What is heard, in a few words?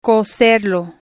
"cocerlo" Spanish